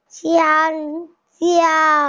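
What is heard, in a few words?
"banana", hearing impaired; xiang1jiao1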